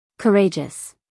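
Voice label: "courageous", American English, female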